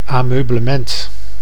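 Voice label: "ameublement", Dutch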